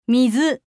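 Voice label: "mizu", Japanese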